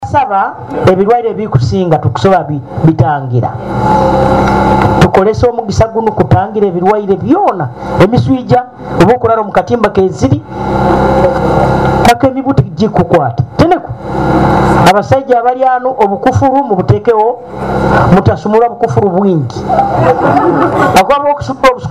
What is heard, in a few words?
full speech